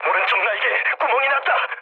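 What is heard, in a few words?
Korean right wing mood low; War Thunder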